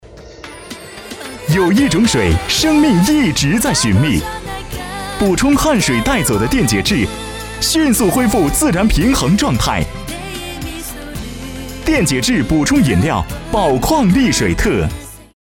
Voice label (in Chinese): 男 矿泉水饮料 活力广告